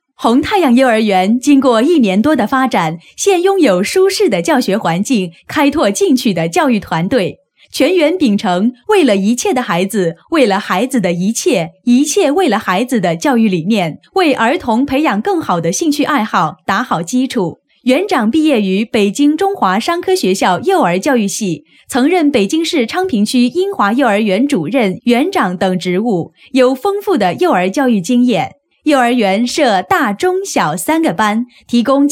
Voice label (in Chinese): 女; 红太阳幼儿园